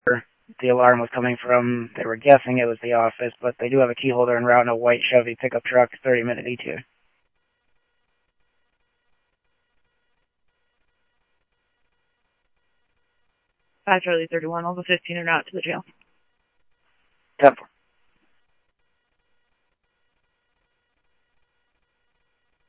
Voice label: Mesa Simulcast, Mesa PD, Police Dep; MESA PD 2 Centrl; MesaPoliceDep